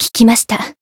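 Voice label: Voice healed, Japanese; niike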